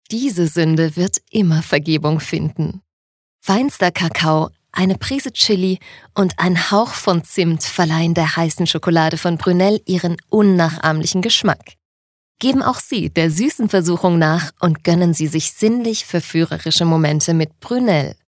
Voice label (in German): Dynamisch